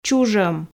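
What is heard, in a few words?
"чужом", Komi, female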